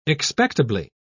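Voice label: "expectably", British English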